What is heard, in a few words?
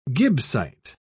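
"gibbsite", British English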